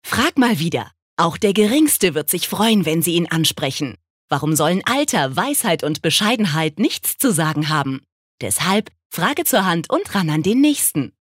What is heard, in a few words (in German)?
Werbung Dynamisch